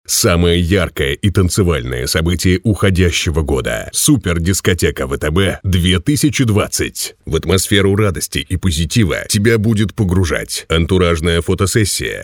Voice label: fast